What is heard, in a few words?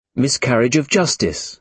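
"miscarriage of justice", British English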